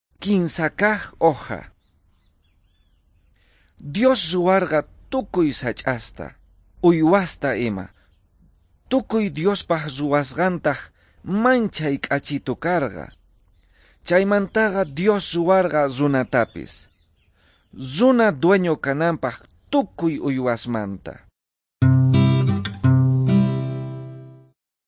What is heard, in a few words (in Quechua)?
quechua bolivian cochabamba; good news